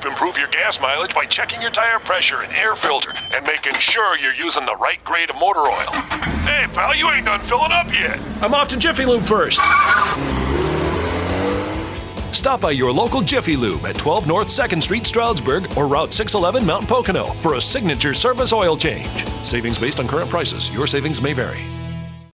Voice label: Talking Fuel Pump, radio; Jiffylube